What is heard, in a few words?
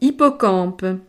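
"hippocampe", French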